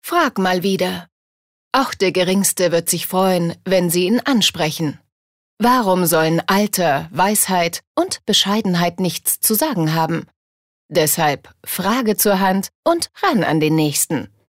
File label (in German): Werbung Elitaer